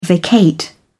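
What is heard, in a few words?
"vacate", British English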